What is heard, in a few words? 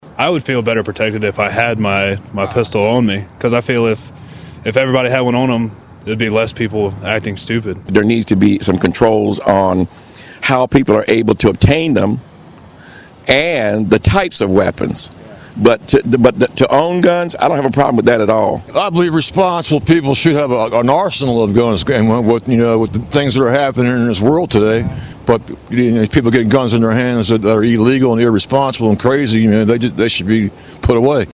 shooting vox pop